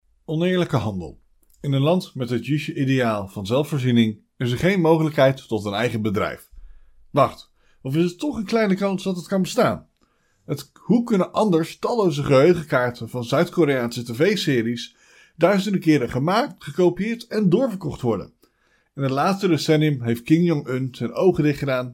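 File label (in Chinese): 荷兰语男_外语_小语种_样音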